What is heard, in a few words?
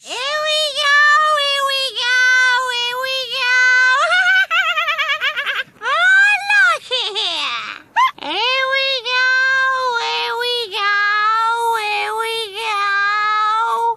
heweego ilikeitheer, gibbrish; Voice